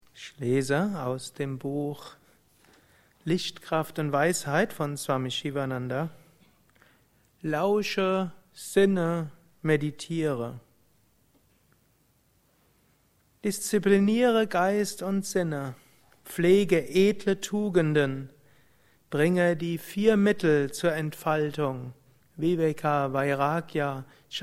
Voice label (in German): Lesung